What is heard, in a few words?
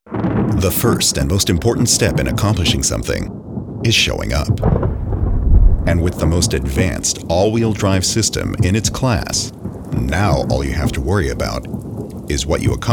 Commercial